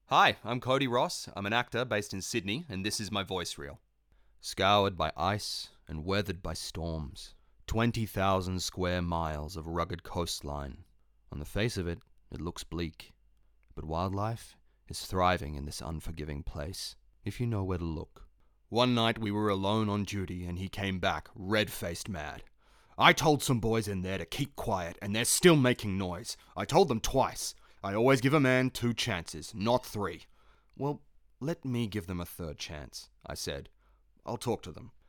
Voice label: Audiobook Narration